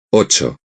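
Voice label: "ocho", Spanish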